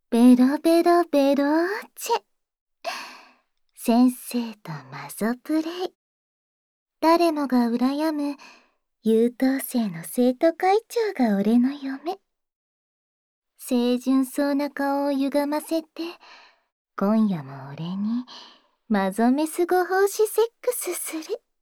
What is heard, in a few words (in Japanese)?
タイトルコール